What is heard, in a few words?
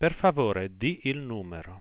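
say the number, Italian, Voice Sounds; Samsung SGH-i310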